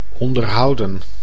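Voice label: "onderhouden", Dutch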